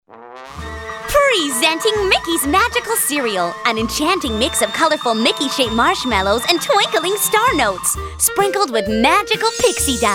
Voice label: commercial female